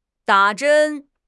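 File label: "da3 zhen1", Chinese; HSK4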